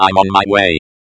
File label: on my way, espeak